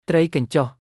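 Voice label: "ត្រី កញ្ចុះ", Khmer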